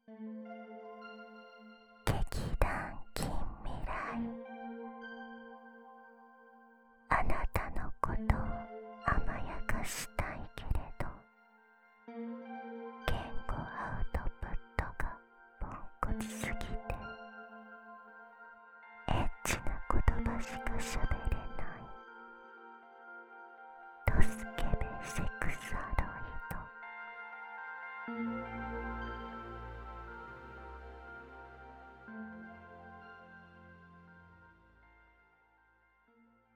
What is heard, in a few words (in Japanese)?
タイトルコール; あなたのことを甘やかしたいけれど、言語アウトプットがポンコツ過ぎてエッチな言葉しか喋れない、どすけべセクサロイド